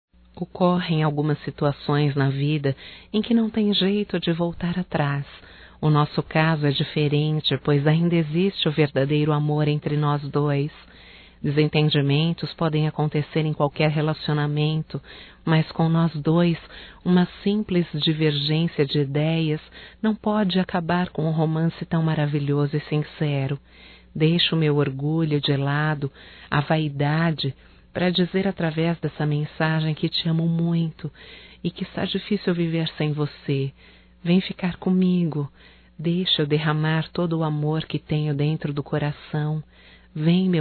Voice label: RECONCILIAÇÃO (F), Telemensagens Românticas fem

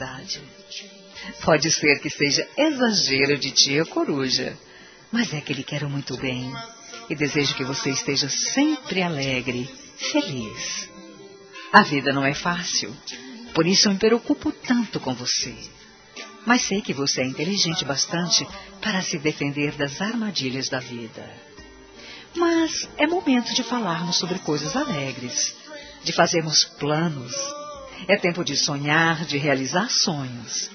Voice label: ANIV. SOBRINHA F; Aniversários; Aniversários diversos; fem

